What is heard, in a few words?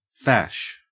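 "fash", Australian English; pronunciations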